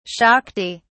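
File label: "shakti", British English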